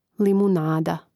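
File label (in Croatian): "limunada", Croatian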